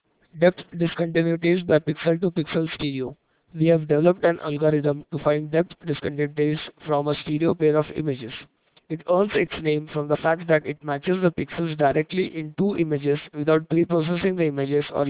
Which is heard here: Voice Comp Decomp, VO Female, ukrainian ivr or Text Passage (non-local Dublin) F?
Voice Comp Decomp